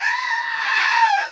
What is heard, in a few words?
VOICE, VOICE-FX